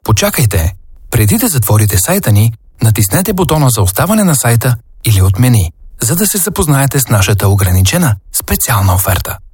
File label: IVR Bulgarian Dry; J Factory